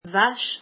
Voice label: "cow", French